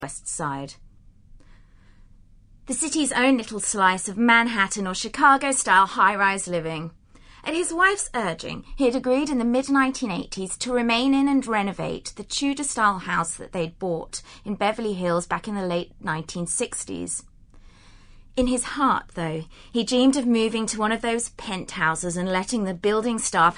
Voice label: ENGLISH FEMALE; Famale Voice 2 女聲2; English 英語